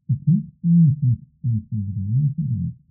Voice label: human adult male talking; tortoise